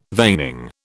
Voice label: "veining", British

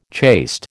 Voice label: "chaste", American

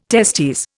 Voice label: "testes", Indian

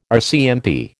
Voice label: "RCMP", American

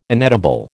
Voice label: "inedible", American

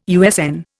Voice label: "USN", Indian

